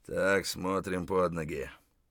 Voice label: mutter, voice; Escape from Tarkov